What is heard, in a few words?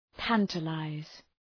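"tantalize", English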